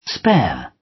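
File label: "spare", British English; Time, history; Thời gian, lịch sử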